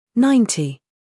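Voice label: "scandal", American; ninety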